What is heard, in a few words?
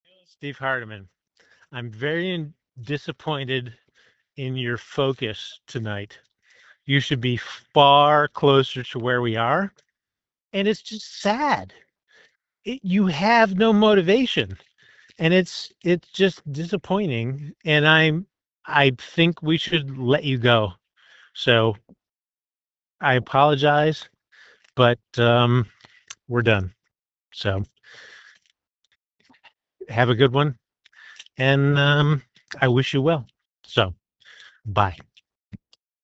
voicemail